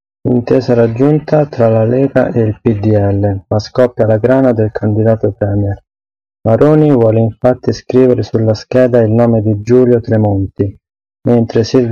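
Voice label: Italian, male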